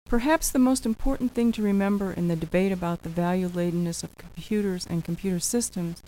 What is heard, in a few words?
8bit